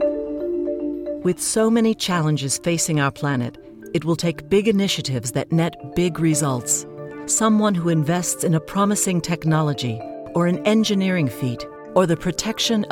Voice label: english us and canada female commercial